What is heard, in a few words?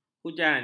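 "hujan", Malay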